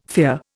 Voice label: "fear", Indian